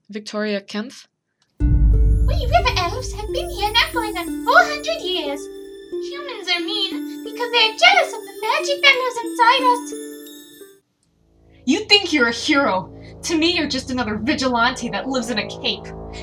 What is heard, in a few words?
character voices